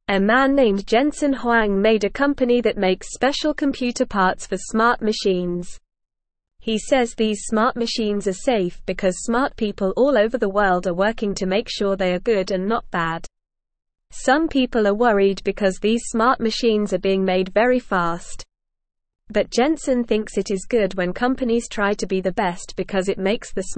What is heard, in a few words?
English Beginner NORMAL Reading; Jensen Huangs Company Makes Smart Machines for Everyone; English Newsroom